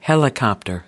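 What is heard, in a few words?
"helicopter", American English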